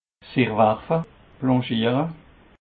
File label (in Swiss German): "werfe", alsace